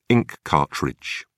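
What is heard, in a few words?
"ink cartridge", British English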